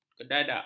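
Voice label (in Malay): "kedadak", Malay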